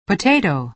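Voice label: "potato", American English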